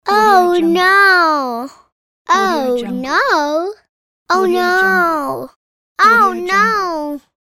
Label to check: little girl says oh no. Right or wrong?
Right